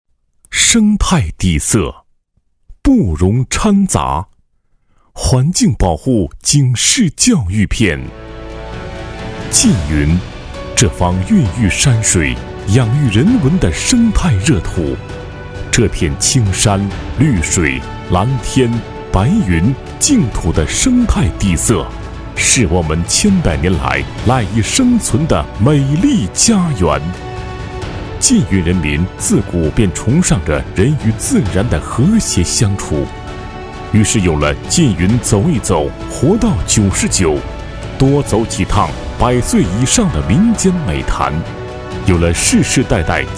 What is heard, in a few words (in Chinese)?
男,专题,环境保护教育片; 缙云; putonghua